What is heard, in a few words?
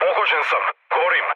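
Serbian on fire mood low, speech; War Thunder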